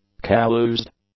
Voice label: "callused", English; adjectives

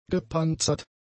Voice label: "gepanzert", German; adjectives

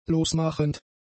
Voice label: "losmachend", German; adjectives

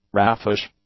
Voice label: "raffish", English; adjectives

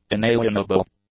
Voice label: "inalienable", English; adjectives

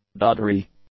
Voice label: "doddery", English; adjectives

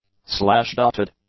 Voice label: "slashdotted", English; adjectives

